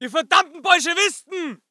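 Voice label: voice german infantry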